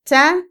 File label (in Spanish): sentir, Otomí, mujer; INALI